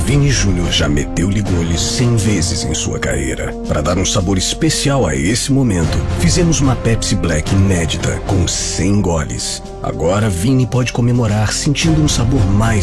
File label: portuguese brazilian commercial, Assertive